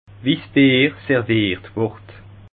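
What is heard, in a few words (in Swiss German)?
"serviert", Bas Rhin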